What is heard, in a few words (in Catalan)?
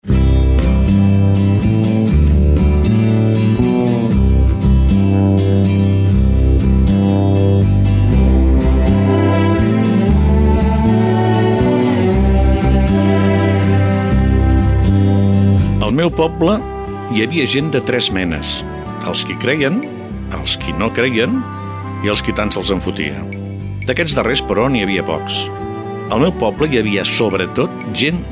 POEMA música